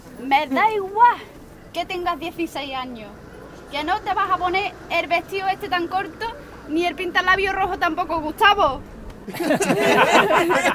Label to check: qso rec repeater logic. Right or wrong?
Wrong